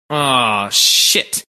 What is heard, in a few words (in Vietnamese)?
Nhạc chuông oh shit tin nhắn